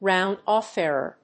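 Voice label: "round-off error", English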